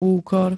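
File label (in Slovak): "úkor", Slovak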